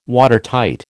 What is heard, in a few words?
"Watertight", American